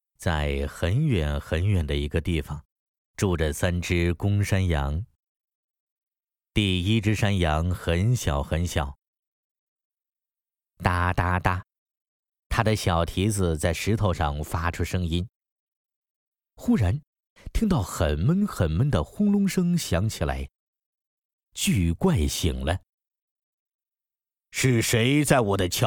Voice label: chinese mandarin animation